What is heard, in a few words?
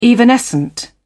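"evanescent", British English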